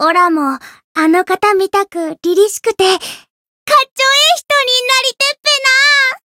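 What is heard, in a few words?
Voice story, Japanese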